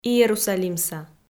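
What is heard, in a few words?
"иерусалимса", Komi, female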